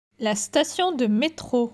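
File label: "la station de metro", French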